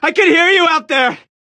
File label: radio initial broadcast